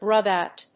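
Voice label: "grub out", English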